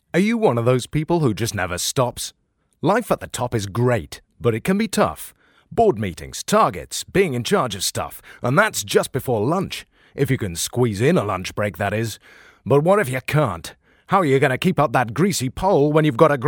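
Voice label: English British, male, voice over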